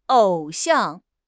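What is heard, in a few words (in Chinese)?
"偶像", Chinese; HSK; HSK6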